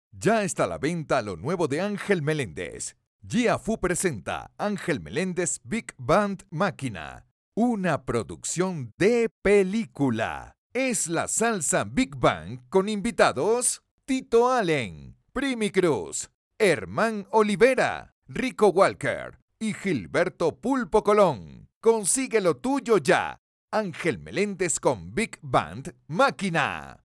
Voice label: Spanish (LA), Male